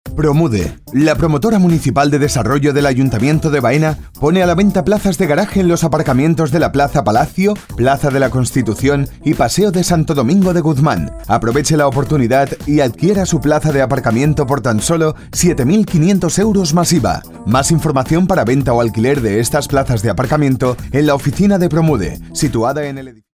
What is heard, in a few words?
locutor spain spanish voiceover; Spanisch Sprecher